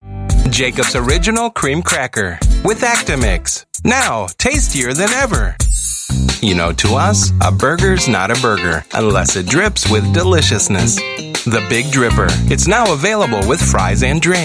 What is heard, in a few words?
US English Commercial